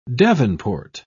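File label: "davenport", English, British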